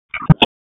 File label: Raleigh Police LE Ops 8, P25 recordings